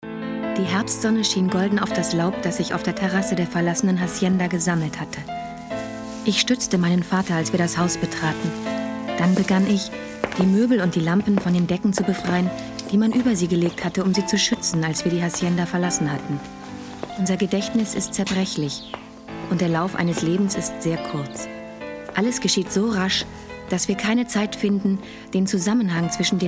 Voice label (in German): erzaehlung